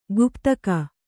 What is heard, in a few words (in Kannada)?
"ಗುಪ್ತಕ", kannada